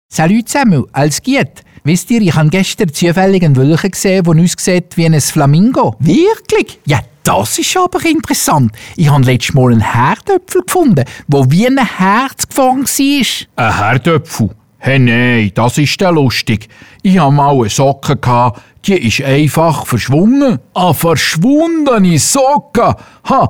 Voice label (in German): stimme diverse dialekte